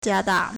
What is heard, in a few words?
"jia1 da4", Chinese